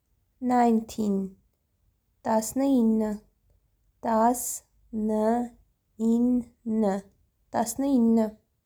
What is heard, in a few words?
"19 tasnyinny", Armenian